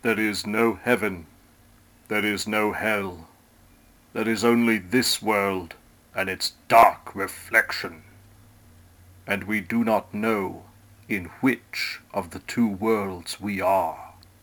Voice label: Dark Reflection Monologue